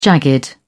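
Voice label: "jagged", British English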